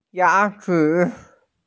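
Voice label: "yachi", Mandarin Chinese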